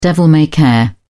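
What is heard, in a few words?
"devil-may-care", British English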